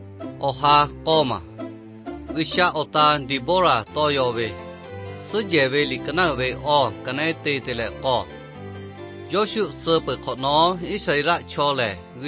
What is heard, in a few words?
victory through god lahu na, nt drama